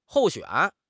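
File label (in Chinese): "候选", Chinese; HSK; HSK6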